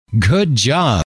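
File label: good job, male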